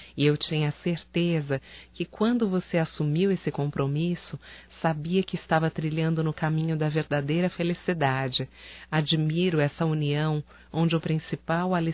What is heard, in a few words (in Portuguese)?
ANIV CASAMENTO AMIGO, fem; Casamentos; Telemensagens 2017